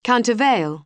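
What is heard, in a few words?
"countervail" English word; oxford api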